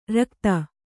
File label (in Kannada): "ರಿಕ್ಥ", Kannada